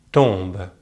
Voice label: "tombe", French; Consonantes en francés; easypronunciation